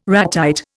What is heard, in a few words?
"ratite", Indian